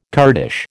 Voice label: "Kurdish", American